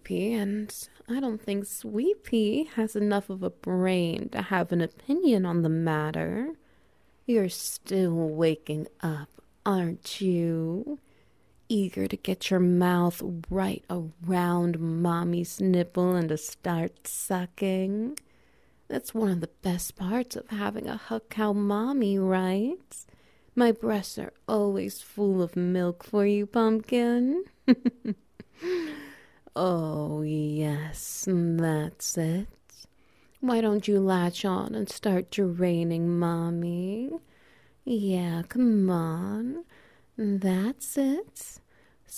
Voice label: On the Phone - Ambience